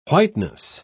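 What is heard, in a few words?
"whiteness", British English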